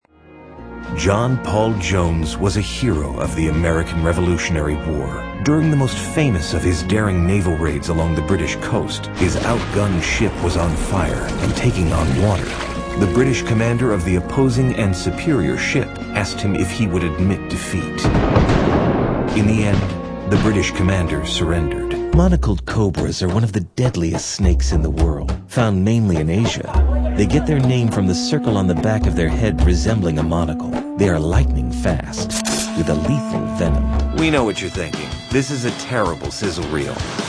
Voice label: male narration